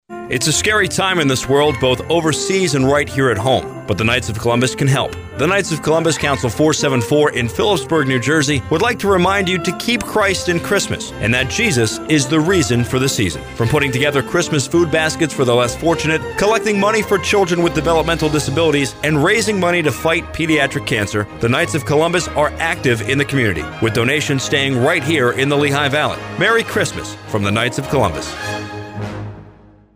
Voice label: Radio Broadcast; Keep Christ in Christmas